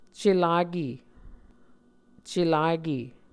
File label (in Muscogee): "celake", Muscogee